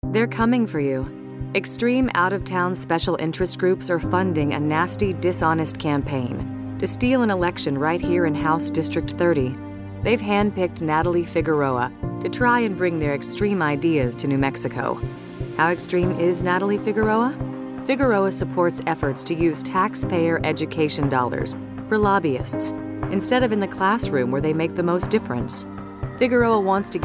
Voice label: radio; Gentry Handpicked

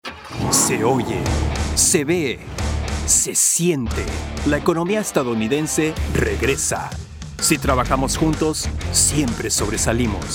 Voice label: Spanish radio; A1P Comeback

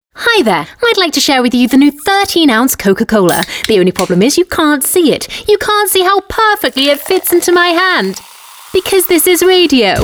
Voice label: Commercial

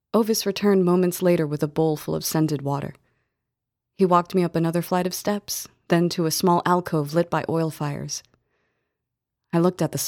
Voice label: Audiobook

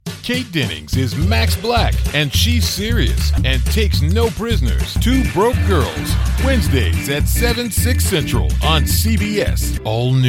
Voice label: Promo

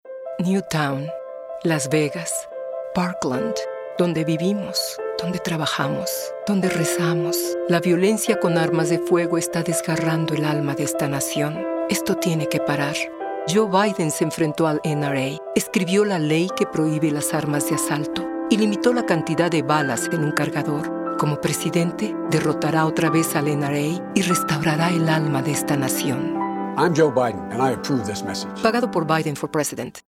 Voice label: Mexican Radio; Stop The NRA

